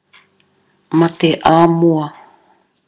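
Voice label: "mate a moa", Maori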